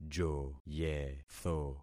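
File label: "joyetho", concatenated syllables; Affixing experiment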